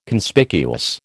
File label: "Conspicuous", American